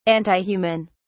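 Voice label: "antihuman", British English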